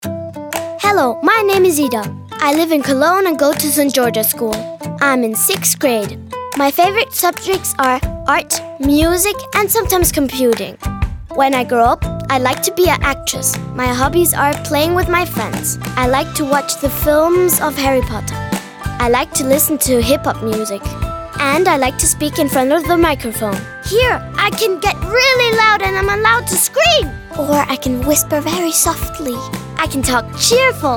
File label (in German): Selbstvorstellung EN